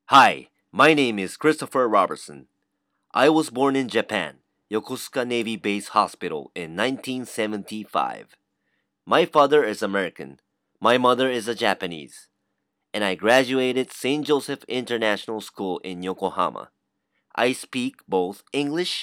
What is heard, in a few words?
narration, introduce